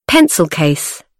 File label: "pencil case", Pronunciation in English